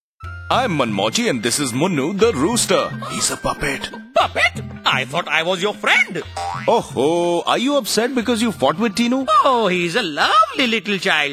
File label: Radio PSA (English); Prevention